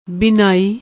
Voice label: "beenaai", Punjabi